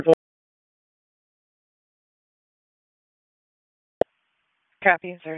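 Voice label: EMS Dispatch, p25; wake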